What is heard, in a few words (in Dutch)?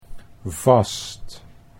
"vast", Dutch